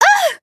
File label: hit, de